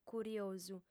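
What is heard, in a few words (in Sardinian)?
"curiosu", female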